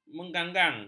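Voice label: "mengganggang", Malay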